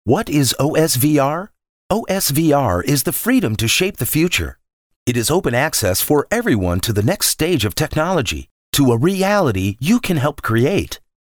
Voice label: SPLAINER VIDEO VO